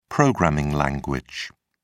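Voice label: "programming language", British English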